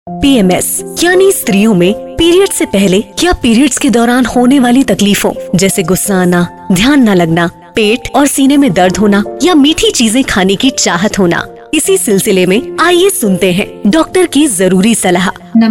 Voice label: Radio Podcast